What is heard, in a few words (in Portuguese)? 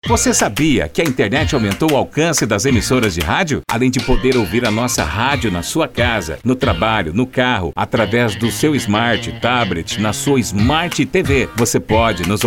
Spot Off Radio digital Voz Masculino